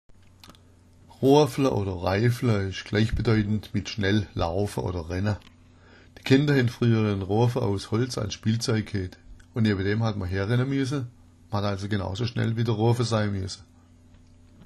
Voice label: reifla, schwaebisch

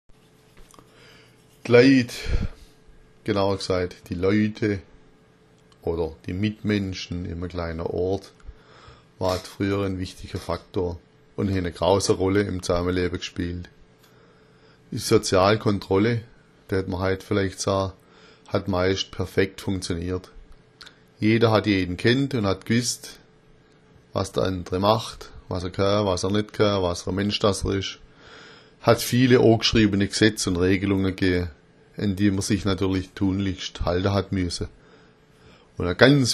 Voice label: leit, schwaebisch; D’ Leit – die Leute, genauer: die Mitmenschen

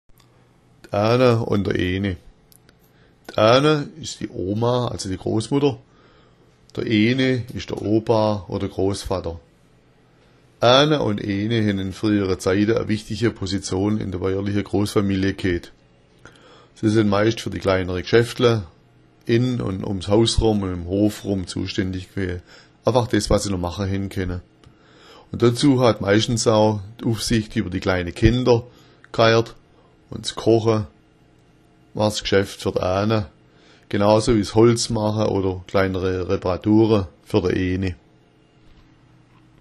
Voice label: "ahna", schwaebisch

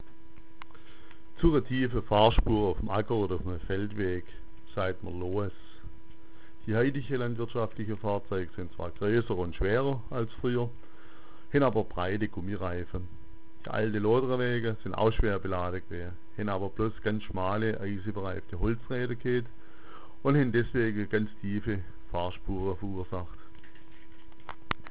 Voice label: "loas", schwaebisch

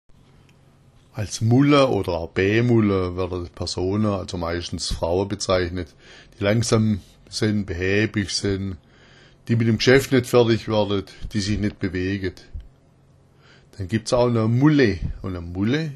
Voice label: mulla, schwaebisch

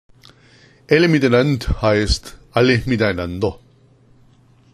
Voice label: "alle miteinand", schwaebisch; Älle mita‘nand heißt „alle miteinander“

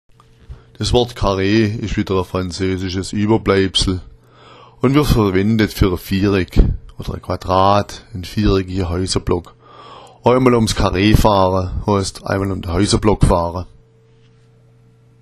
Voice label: "karree", schwaebisch

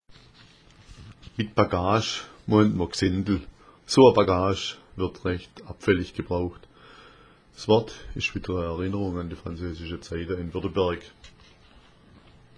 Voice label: "bagage", schwaebisch

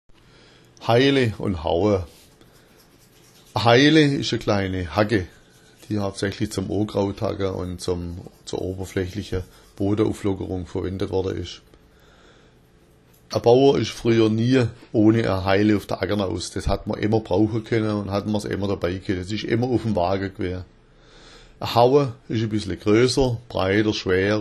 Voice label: haile, schwaebisch

